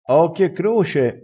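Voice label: "a occhio e croce", Italian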